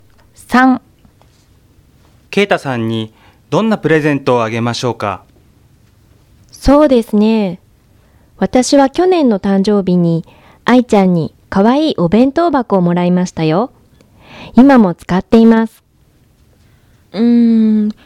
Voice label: Conversation